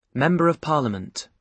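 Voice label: "member of parliament", British English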